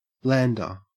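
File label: "lander", Australian English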